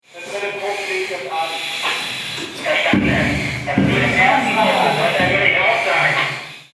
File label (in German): Feuerwehruebung Funk